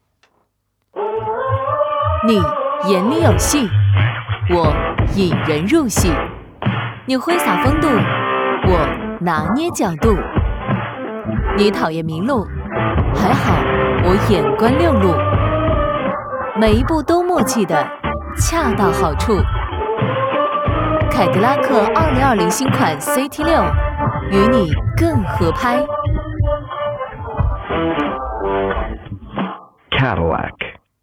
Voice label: 女 品质广告; 凯迪拉克

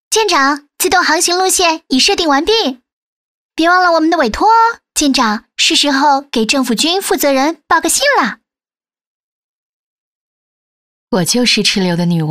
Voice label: 女 角色; 拉克西丝

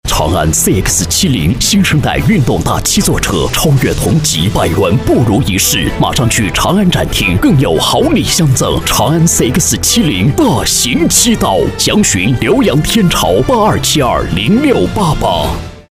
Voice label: 男 汽车广告 恢弘大气

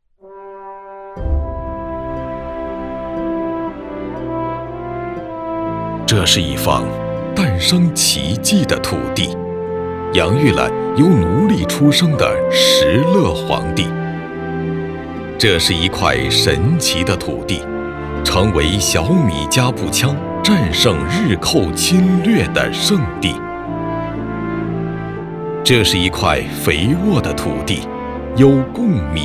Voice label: 男 产业宣传片 自豪 大气 浑厚

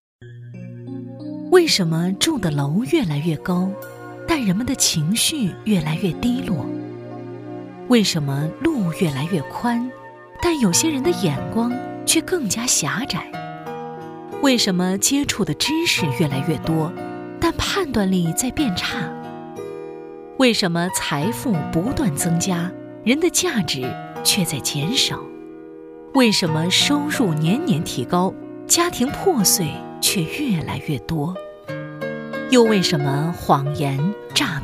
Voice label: 女 纪录片; 人生

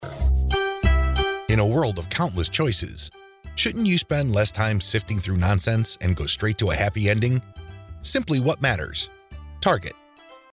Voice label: 轻快 产品广告

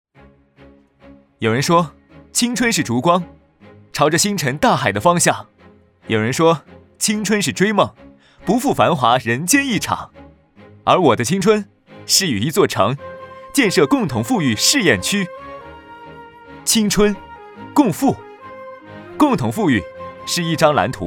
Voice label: 男 宣传片 年轻活力; 青春·共赴

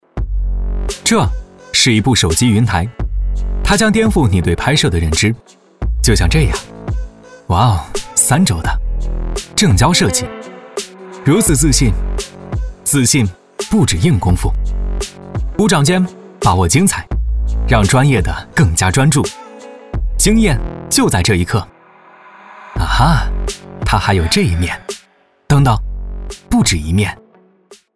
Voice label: 男 时尚 品质 旁白

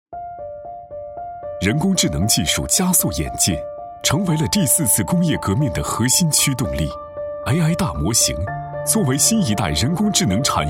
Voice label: 男 大气科技 人工大模型

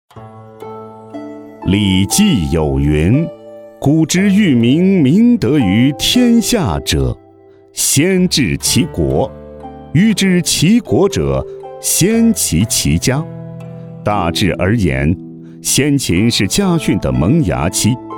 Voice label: 男 宣传片; 儒家家训

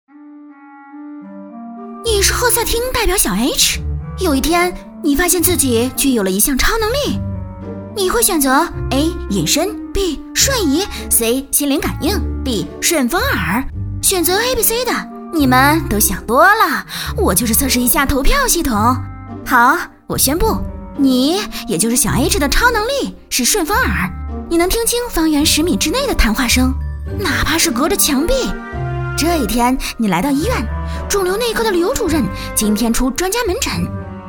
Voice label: 女 飞碟说; 赫赛汀

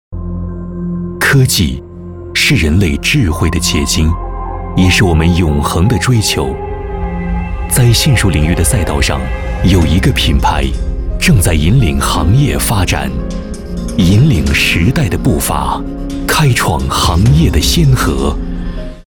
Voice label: 男 科技 科技感

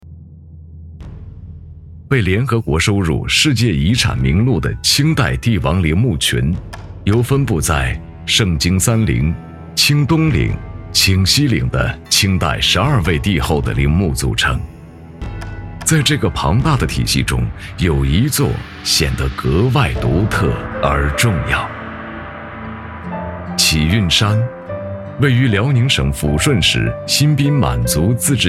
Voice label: 男; 清永陵

